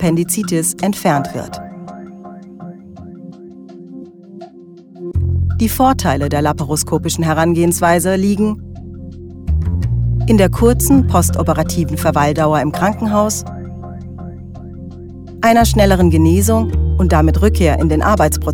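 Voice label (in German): erklärfilm appendektomie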